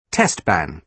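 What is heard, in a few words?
"test ban", British English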